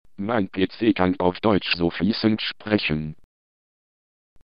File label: german, synthesizer